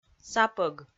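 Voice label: "сапӧг", Komi, female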